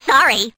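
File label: "sorry", cartoon woman; expletives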